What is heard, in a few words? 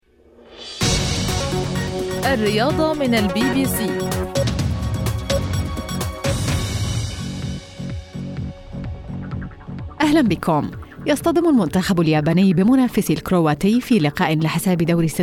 arabic sports